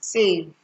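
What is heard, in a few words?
"save", Nigerian Pidgin, female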